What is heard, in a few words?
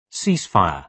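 "ceasefire", British English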